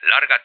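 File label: "long", English; Codriver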